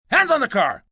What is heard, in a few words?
Hands On The Car, Police, male: 'Hands on the car', command, order, (human voice speaking); Talking Sound Effects; Sound Effects:Voice; VoiceBox